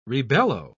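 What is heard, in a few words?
"rebellow", British English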